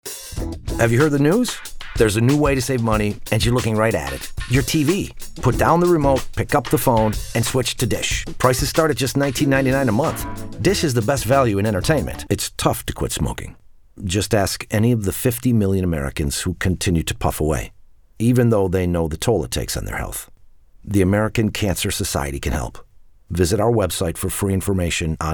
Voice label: Men Commercial